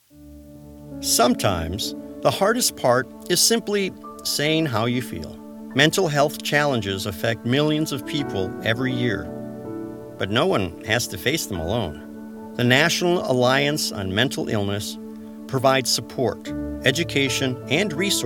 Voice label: PSA, psa voiceover